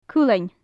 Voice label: "cuileann", gaelic; Faclan Nàdair

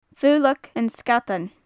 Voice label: "faoileag an sgadain", gaelic; Faclan Nàdair

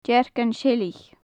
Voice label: "deargan-seilich", gaelic; redpoll; deargannan-seilich; Faclan Nàdair

